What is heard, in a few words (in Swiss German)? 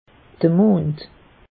"mond", alsace; meteo